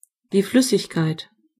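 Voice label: liquid, German voices words; gcompris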